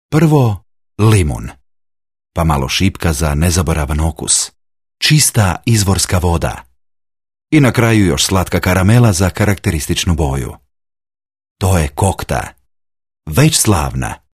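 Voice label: croatian male narration, voice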